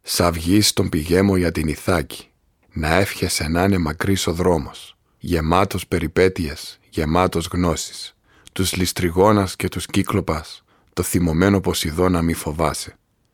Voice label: Greek; Greek, Male, Home Studio, 20s-40s

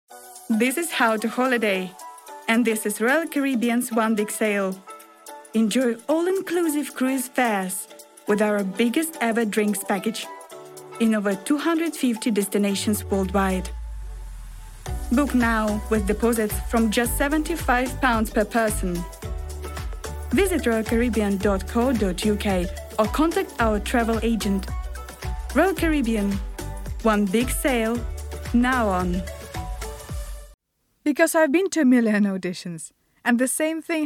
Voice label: English, eastern european

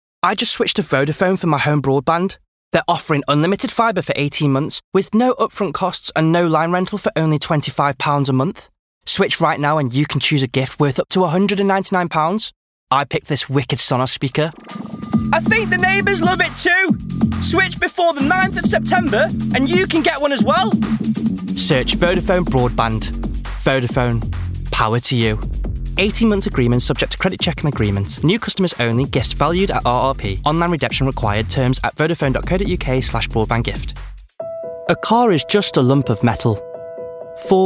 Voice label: commercials, Chinese Cantonese

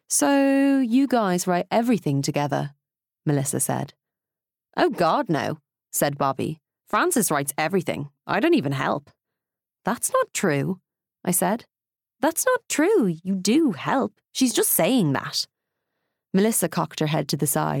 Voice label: Audiobook

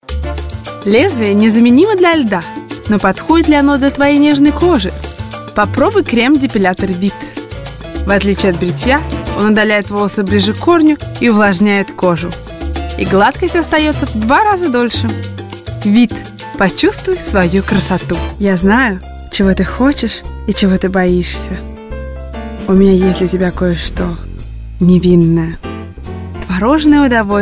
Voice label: Russian

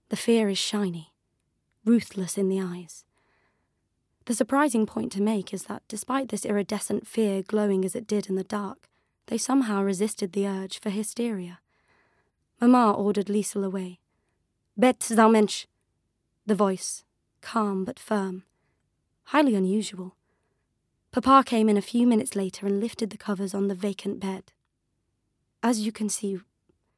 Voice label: Audiobook

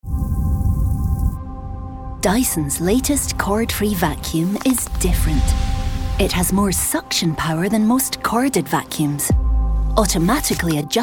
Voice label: Commercials

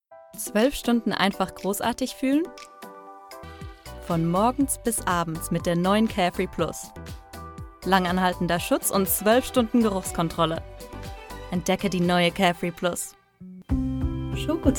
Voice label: German

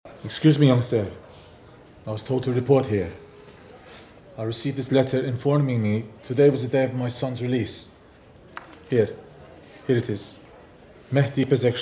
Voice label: Iranian accent, Radio Drama; BBC Radio Drama; The Fall of the Shah

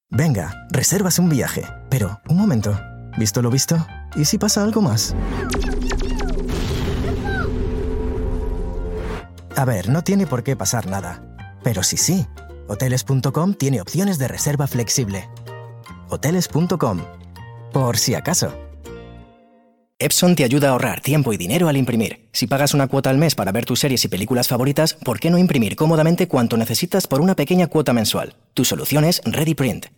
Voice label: Spanish

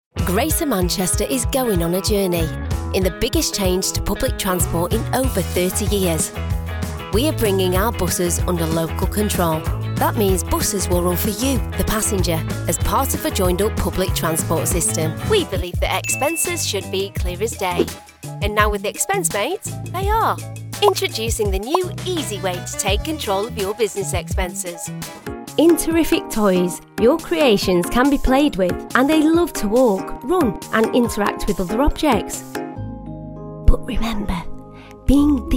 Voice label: Corporate; regional accents

